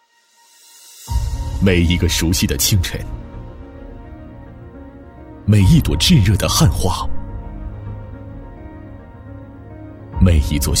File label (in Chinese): 男, 专题, 企业, 造船; 新大洋造船有限公司